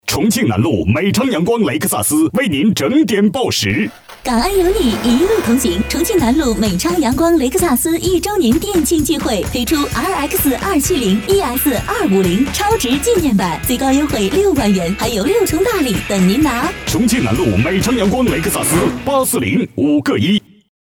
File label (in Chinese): 广告 报时 风格 明快, 国语女声配音; 雷克萨斯报时